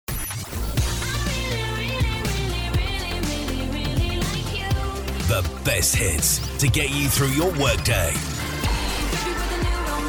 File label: Radio Imaging; Fusion